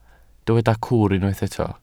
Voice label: "cwr", Welsh

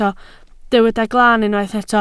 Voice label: "glaan", Welsh

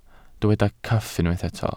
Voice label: "cyff", Welsh

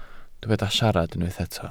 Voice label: "siarad", Welsh

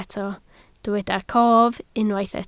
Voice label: "cof", Welsh

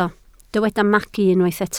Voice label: "magu", Welsh

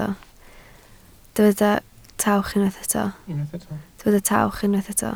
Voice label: "tawch", Welsh

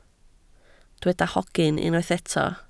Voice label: "hogyn", Welsh

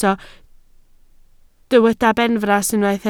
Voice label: "benfras", Welsh

